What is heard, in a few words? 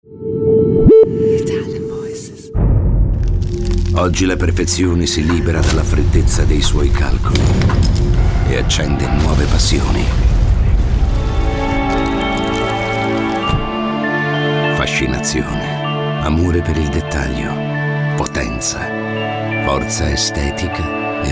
Dark Grave, ITALIANVOICES